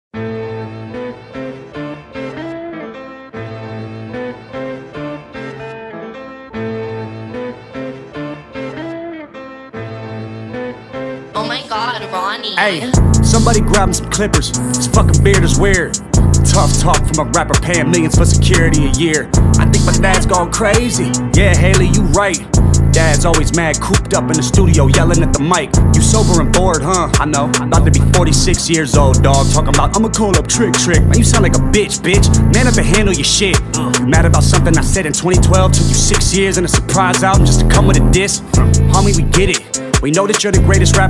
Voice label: rap